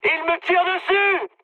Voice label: aircraft damaged mood high, speech fr; War Thunder